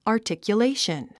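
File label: "articulation", English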